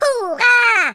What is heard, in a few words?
victory, speech German